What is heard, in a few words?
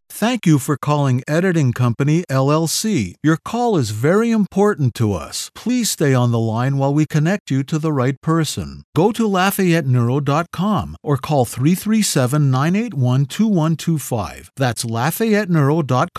on hold phone tag compilation